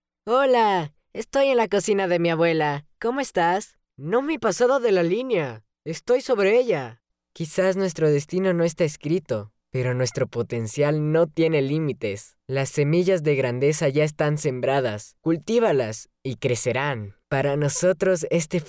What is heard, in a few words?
Teen Real Spanish